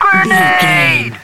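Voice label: radio voice male soldier grenade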